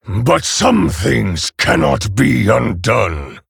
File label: Male Orc Ogre